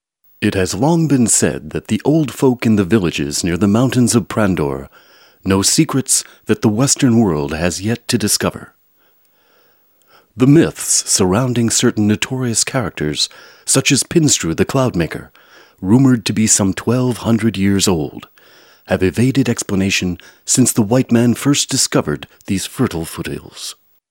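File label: male English documentary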